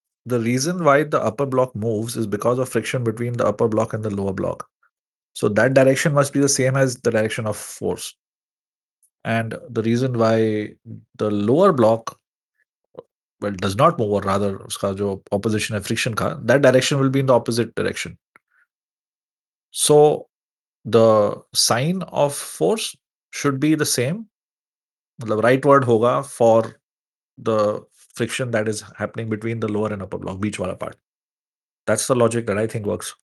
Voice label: voice message